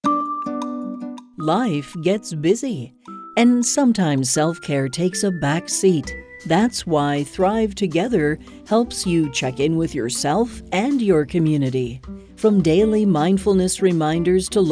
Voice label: Explainer